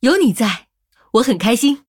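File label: Female, Chinese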